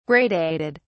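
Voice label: "gradated", English, British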